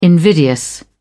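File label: "invidious", British English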